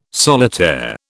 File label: "solitaire", British